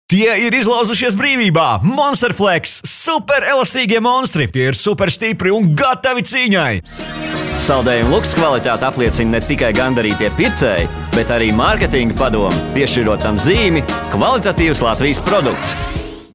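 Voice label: Latvian, male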